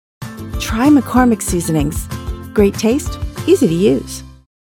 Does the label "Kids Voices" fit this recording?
No